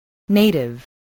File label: "native", voice